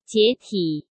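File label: "解体", Mandarin Chinese; hsk; chinese-flashcards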